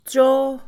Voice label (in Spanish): precio, Mixe, Mujer; Posesión; INALI